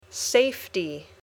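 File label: "safety", English word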